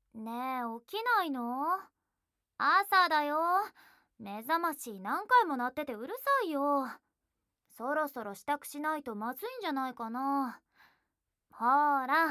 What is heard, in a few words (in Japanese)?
目覚まし ボイス; Level2; 負けヒロインの白ギャルちゃん～頼りになる後輩くんと二人だけの秘密～